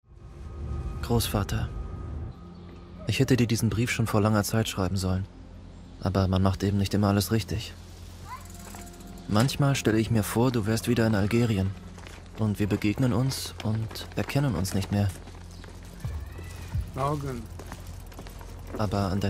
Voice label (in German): Synchron Innerer Monolog; ARTE; Algiers Confidential